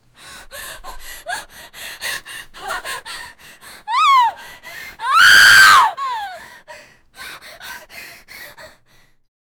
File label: realistic sound of a 15 y, teenage girl